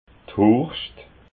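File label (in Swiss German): "hurscht", Alsatian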